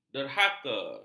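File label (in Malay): "derhaka", Malay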